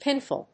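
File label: "pinfall", English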